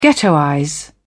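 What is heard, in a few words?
"ghettoize", British English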